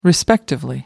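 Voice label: "respectively", British English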